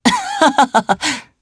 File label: Happy, jp; Theo; kingsraid